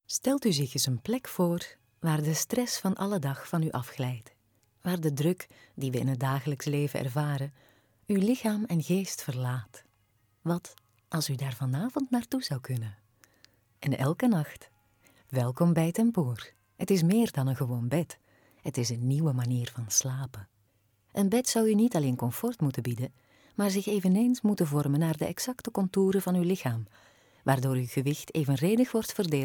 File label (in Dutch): tempur vlaams